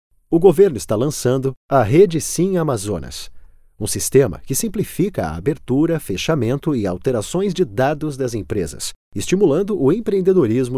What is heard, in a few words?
portuguese brazilian commercial; brazilian portuguese voice actors